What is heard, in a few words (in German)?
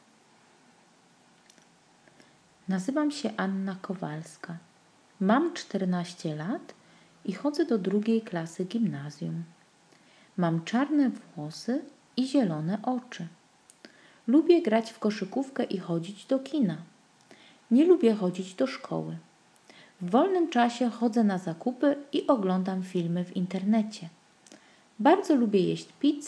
Polnisch Hoerverstehen